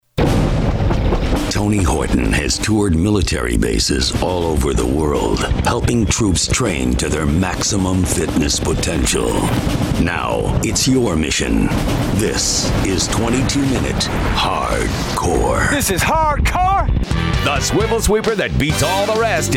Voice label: Infomercials